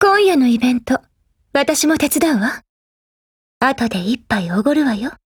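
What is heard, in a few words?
TANABATA, Japanese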